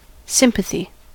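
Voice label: "sympathy", American English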